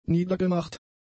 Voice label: "niedergemacht", German; adjectives

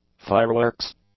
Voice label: "fireworks", English; nouns

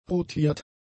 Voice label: "rotiert", German; adjectives

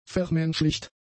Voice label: "vermenschlicht", German; adjectives

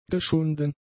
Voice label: "geschunden", German; adjectives

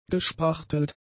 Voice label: "gespachtelt", German; adjectives

